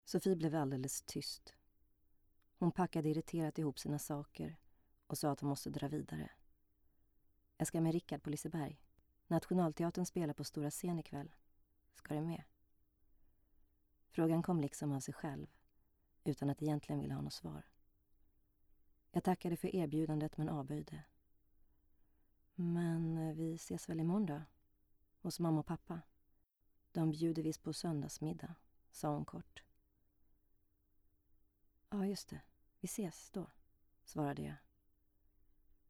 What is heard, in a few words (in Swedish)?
story swe